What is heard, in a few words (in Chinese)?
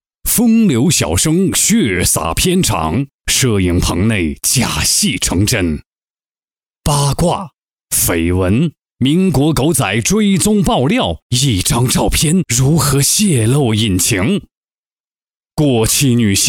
国语男; 北洋夜行记